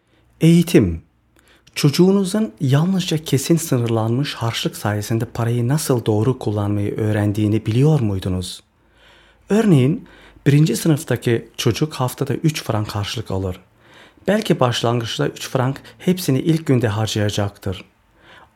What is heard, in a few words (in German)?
tuerk